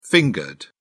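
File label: "fingered", British English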